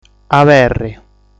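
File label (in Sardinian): "Aberri", Sardinian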